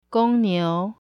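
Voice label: "gongniu" bull, mandarin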